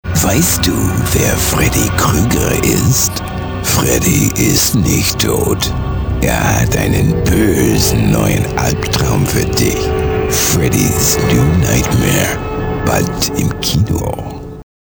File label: German Horror